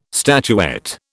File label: "statuette", British